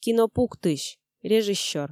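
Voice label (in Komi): "кино пуктысь режиссер", Komi, female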